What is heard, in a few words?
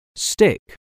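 "stick", English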